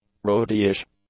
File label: "rowdyish", English; adjectives